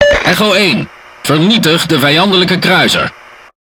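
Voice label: Speech Tutorial, Dutch; World Of Warships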